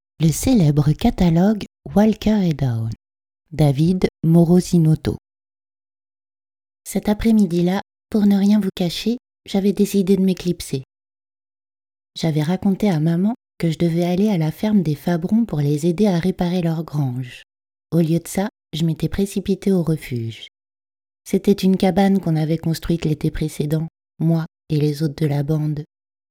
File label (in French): lecture audio; demo voix narration audio